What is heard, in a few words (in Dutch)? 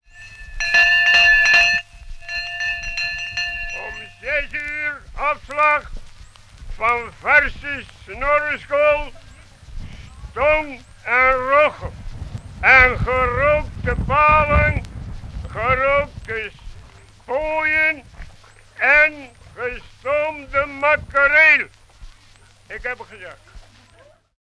omroeper urk; 1936; vis